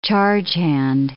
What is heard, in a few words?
"chargehand", English